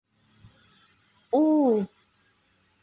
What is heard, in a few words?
Suara U, Aksara Swara, Basa Jawa